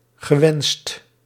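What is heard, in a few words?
"gewensts", Dutch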